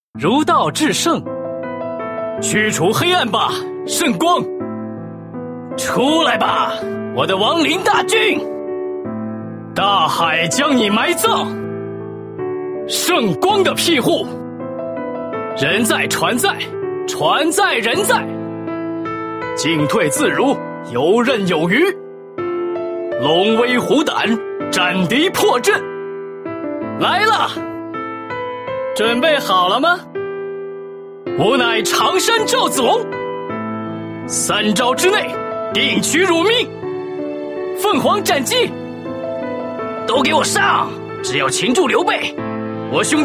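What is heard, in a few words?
male young adult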